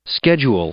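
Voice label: "schedule", English, male; noun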